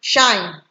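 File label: "shine", Nigerian Pidgin, female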